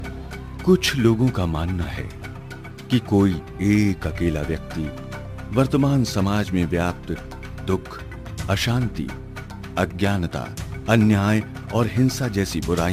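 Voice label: docu hindi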